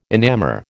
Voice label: "enamour", American